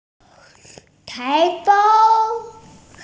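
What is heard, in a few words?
"tai2feng1", Mandarin Chinese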